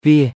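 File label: "វា", Khmer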